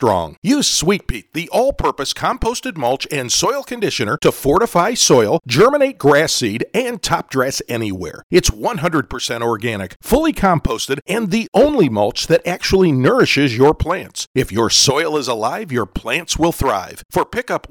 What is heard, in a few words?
Family Male